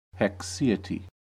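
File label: "haecceity" US English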